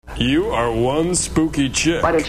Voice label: spooky